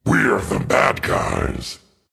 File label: Dark Army Barrack Taunt